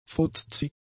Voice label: "fuzzy", German; adjectives

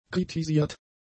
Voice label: "kritisiert", German; adjectives

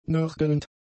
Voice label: "nörgelnd", German; adjectives

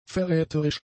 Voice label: "verräterisch", german; adjectives

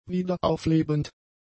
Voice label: "wiederauflebend", German; adjectives

